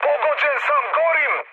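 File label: Serbian on fire mood med, speech; War Thunder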